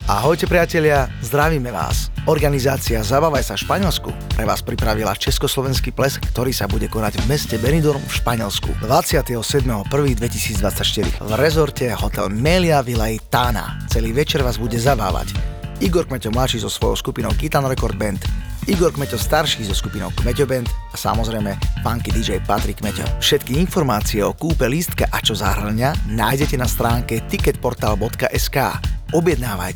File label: Spot